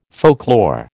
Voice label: "folklore", American

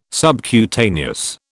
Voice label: "subcutaneous", British

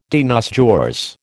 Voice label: "de nos jours", American

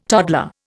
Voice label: "toddler", Indian

